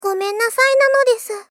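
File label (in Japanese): Moe Apologize Gomen nasai nanodesu, moe loli girl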